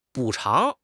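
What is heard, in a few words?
"补偿", Chinese; HSK6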